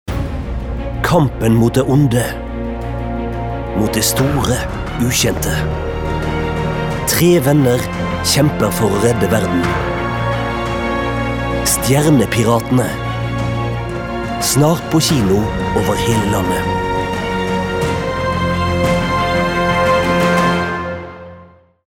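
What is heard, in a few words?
Norwegian movietrailer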